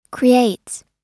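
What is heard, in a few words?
"creates", US English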